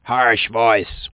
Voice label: harsh, voice